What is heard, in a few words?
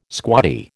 "squaddie", American